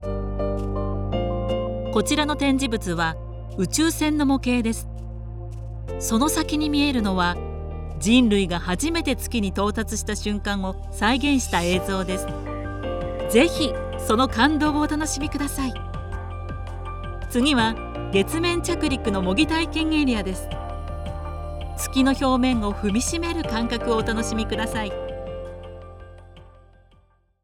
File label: guide museum